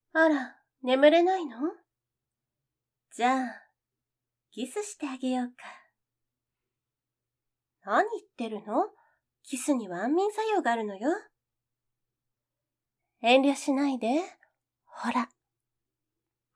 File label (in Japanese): バイノーラル 「おやすみ」お姉さん